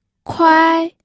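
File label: "kuai", first tone, pinyin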